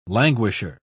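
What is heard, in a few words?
"languisher", British English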